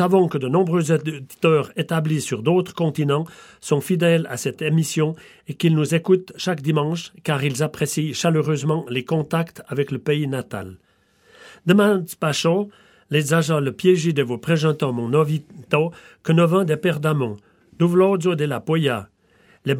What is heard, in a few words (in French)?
Patois